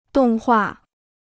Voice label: "动画", Chinese

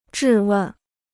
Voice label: "质问", Chinese

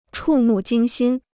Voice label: "触目惊心", Chinese

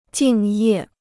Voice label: "敬业", Chinese

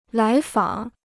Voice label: "来访", Chinese

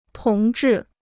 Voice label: "同志", Chinese

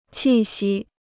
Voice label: "气息", Chinese

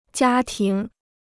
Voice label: "家庭", Chinese

